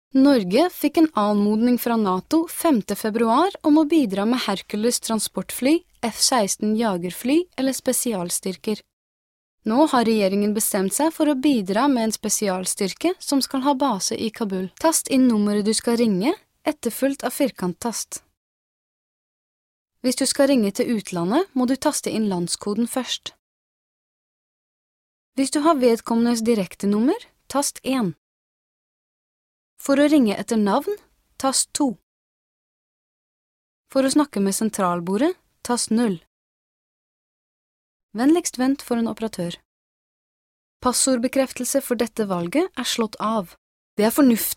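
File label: Norwegian, General; GoLocalise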